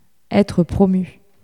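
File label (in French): "être promue", French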